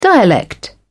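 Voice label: "dialect", British English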